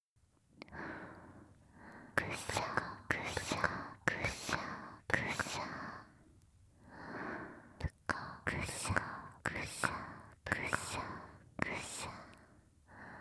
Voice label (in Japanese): 両耳オノマトペ（なでなでSE無）; 音量一定版